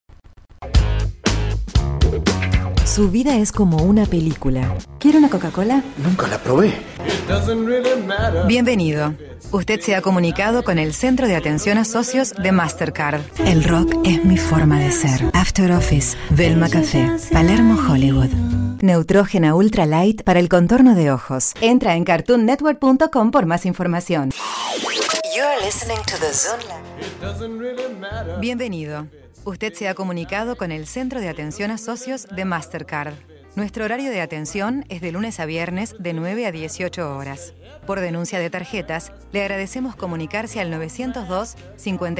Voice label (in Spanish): Locutora Argentina